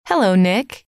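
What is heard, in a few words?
hello, female; trainer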